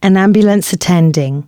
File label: announcements, female